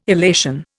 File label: "illation", Indian